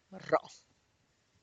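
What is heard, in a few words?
tlacochahuaya, Zapotec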